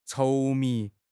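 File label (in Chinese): "稠密", Mandarin Chinese; HSK6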